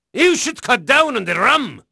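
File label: Dutch male, voice; Pirates Of The Caribbean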